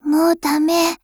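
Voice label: LOW MOOD, Japanese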